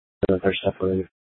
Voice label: Scottsdale PD Sth Dispatch, scanner

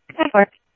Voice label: Tempe Police, scanner

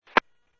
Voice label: Medevac, scanner; NativeAirAmb

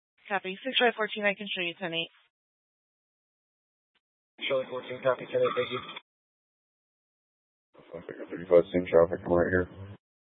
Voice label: Mesa PD Dispatch; TOPAZ Rgnl Wireless Co-op (TRWC); Fiesta

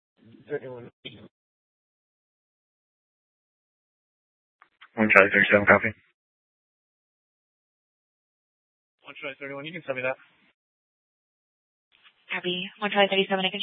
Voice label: Mesa PD Central Dispatch, scanner

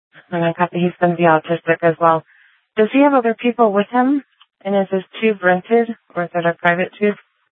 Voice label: Sheriff, scanner P25; Maricopa County (P25) - Thompson Peak; Shrf Lakes

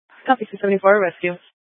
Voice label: Fire Dispatch; C- Chandler

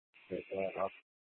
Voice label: Mesa PD Central Dispatch, Scanner

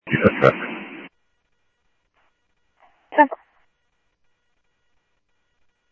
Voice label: Police, scanner audio

